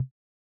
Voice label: gibberish; crabs-game